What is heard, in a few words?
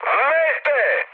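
Serbian enemy detected mood high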